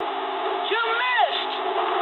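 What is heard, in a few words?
English bomb failure mood low; speech; War Thunder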